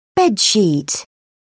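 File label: "bed sheet", British English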